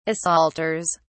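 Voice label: "assaulters", British English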